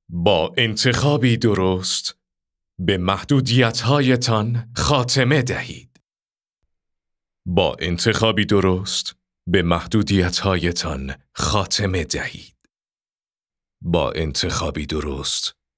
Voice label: narrator